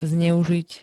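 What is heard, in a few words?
"zneužiť", Slovak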